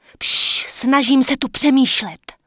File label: Human Female Official NPC Pissed; wowpreklad; czwow